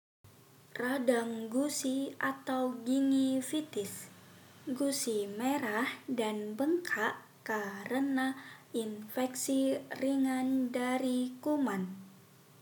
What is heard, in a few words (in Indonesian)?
Radang Gusi, Dubbing; ToothyV